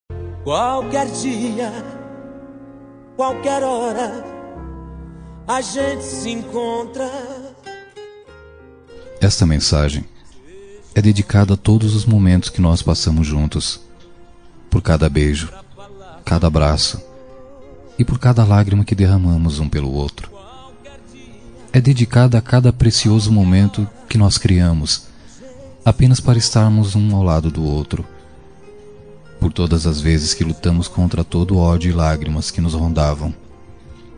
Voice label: romantica masc, Telemensagem Romântica – Voz Masculino

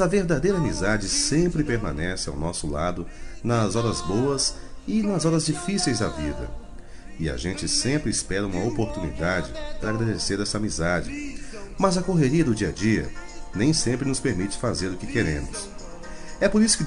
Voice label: dia do amigo mas amigo, voz masculina

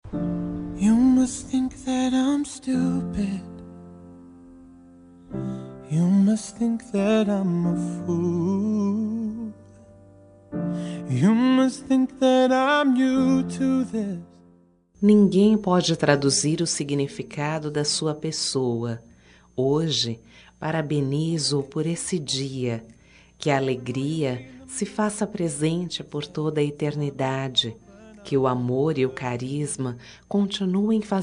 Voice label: sogro fem, Dia dos Pais Sogro – Voz Feminina

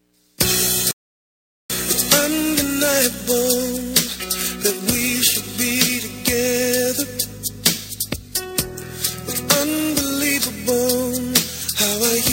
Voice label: toque p, Toque para Não Terminar – Voz Feminina; telemensagem

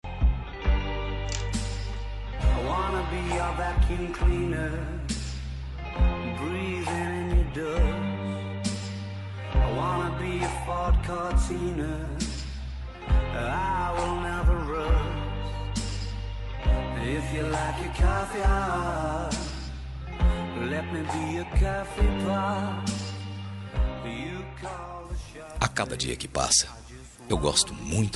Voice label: romântica, Telemensagem Romântica – Voz Masculina

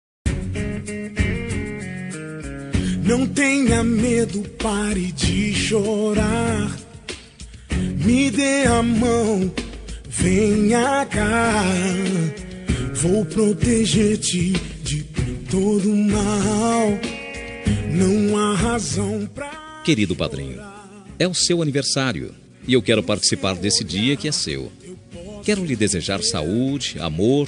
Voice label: infantil madrinha masc, Voz Masculina; Aniversário Voz Infantil – Madrinha – Voz Masculina

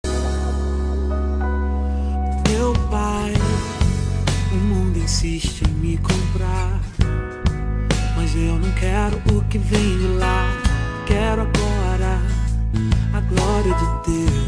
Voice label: rom fem gospel, Telemensagem Romântica Gospel – Voz Feminina

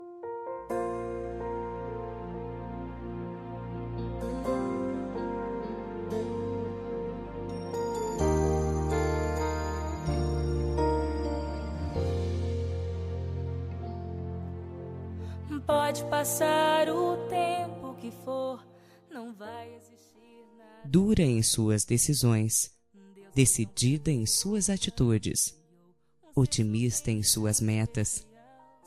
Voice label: fem evangelica e nao; dia das maes para minha mae evangelica voz feminina

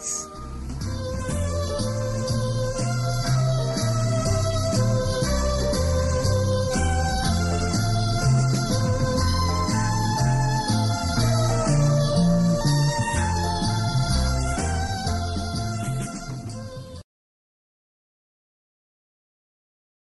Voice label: neutra gospel, Aniversário Pessoa Especial Gospel – Voz Feminina

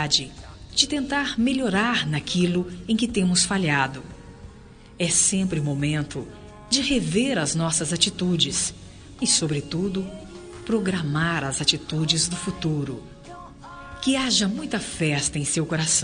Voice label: madrinha fem, Aniversário Madrinha – Voz Feminina

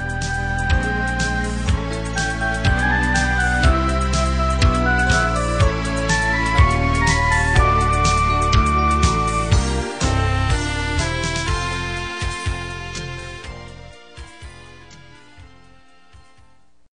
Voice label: natal pessoa especial masc, Natal Romântica – Voz Masculina

